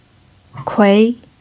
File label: "kui2", Mandarin pinyin